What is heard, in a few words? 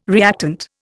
"reactant", Indian